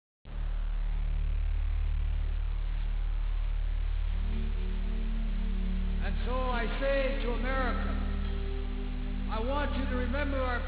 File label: Still Strong Narrative